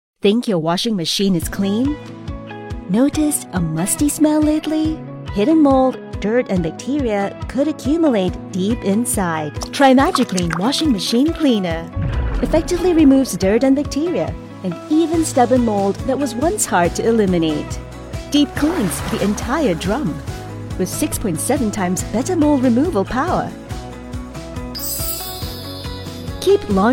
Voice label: Product Ad for Social Media (Singapore Market) Bright, Clear, Informed